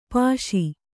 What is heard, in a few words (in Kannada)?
"ಪಾಶಿ", Kannada; pāśi; = ಪಾಶಪಾಣಿ.